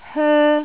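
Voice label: "hoe1", Cantonese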